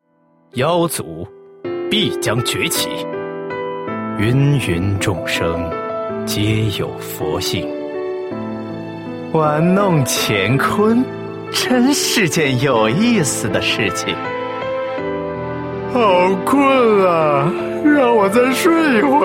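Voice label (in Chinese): male, qingnian (young man)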